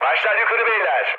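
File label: Turkish near waypoint, mood med, speech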